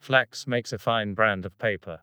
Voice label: CREAKY